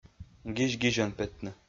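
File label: "гизь-гизьӧн петны", Komi-Zyrian, male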